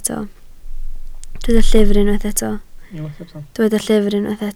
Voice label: "llyfr", Welsh